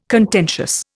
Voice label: "contentious", Indian

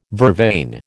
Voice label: "vervain", American

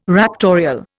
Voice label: "raptorial", Indian

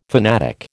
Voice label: "fanatic", American

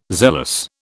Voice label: "zealous", British

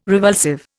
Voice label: "revulsive", Indian

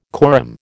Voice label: "corymb", American

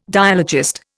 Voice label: "dialogist", Indian

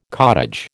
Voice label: "cottage", American

